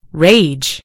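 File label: "rage", British English; gcompris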